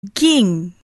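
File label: "ging", Malay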